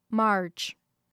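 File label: "march", English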